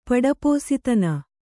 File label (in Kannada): "ಪಡಪೋಸಿತನ", Kannada